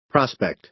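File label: "prospect", American English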